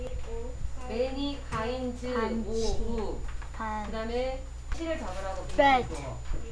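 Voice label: "ring", spoken word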